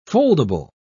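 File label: "foldable", British English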